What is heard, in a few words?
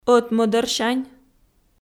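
"ӧтмӧдӧрсянь", Komi, female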